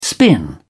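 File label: "spin", British English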